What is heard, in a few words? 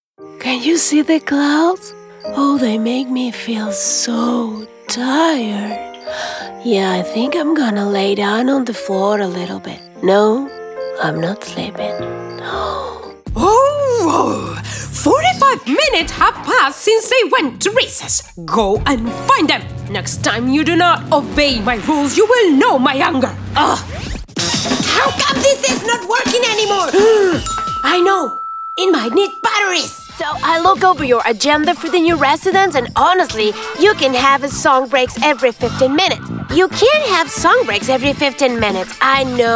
ANIMATION ENG